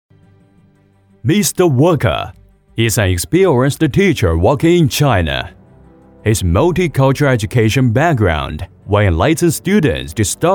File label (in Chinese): 男英 专题 颁奖 颁奖国际部外教; 外语; 英语